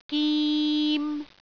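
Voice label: "gim3", Cantonese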